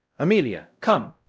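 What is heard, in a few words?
Voice Acting Spanish, male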